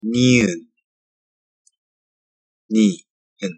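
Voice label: "ㄴ", Korean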